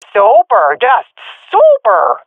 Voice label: round winning, swat fem; Counter Strike Model Pack; CS:GO